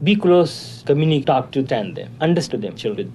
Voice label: becos tuk tandim tooduh de chillum, Voice gibbrish